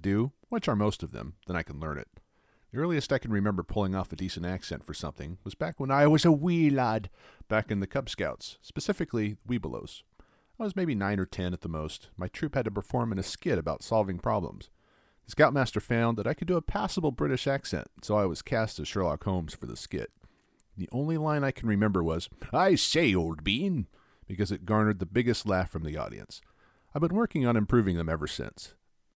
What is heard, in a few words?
Accents